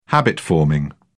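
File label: "habit-forming", British English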